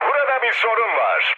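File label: aircraft damaged, mood low, Turkish; War Thunder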